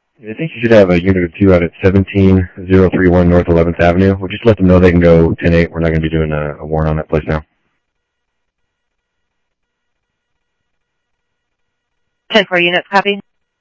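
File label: police department, scanner audio; PHOENIX PD-200 A Phoenix PD; ThompsonPeakHigh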